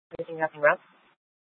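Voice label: police department Dispatch, scanner; Mesa PD; Fiesta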